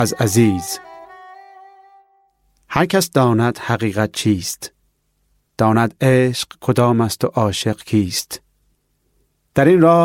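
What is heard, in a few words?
FA Narrative, Persian; GoLocalise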